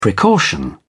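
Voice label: "precaution", British English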